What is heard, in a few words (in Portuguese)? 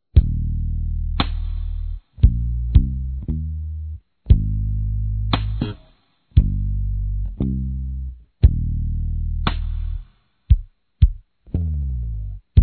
Natal Para Amigo Masculino, Telemensagens; Natal e Ano novo